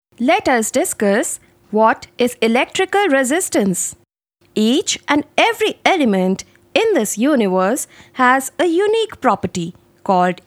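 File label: Elearn English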